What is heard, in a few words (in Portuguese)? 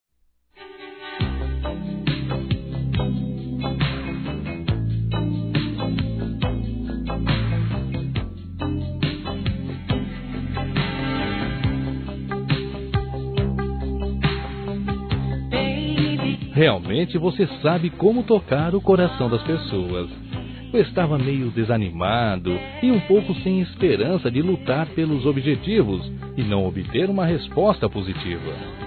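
AGRADECIMENTO PELA MENSAGEM, masculino; Telemensagens; Convites e Agradecimentos; Telemensagens 2017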